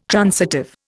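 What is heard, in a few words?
"transitive", Indian English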